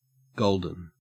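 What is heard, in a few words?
"golden", Australian English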